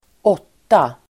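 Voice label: "åtta", Swedish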